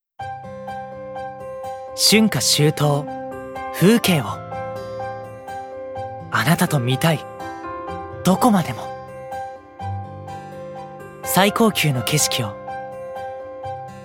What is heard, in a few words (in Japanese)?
ナレーション